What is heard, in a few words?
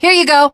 throw items, teen girl; Left 4 Dead 2